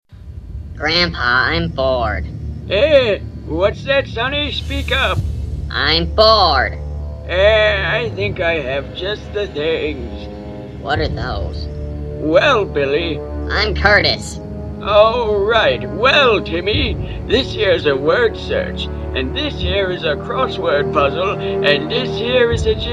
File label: commercial